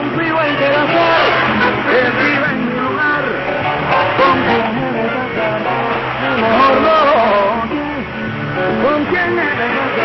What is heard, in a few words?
R. Habana Cuba 6180kHz